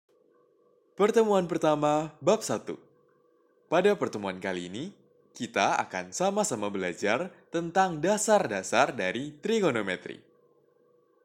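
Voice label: Indonesian e-learning; BAHASA INDONESIA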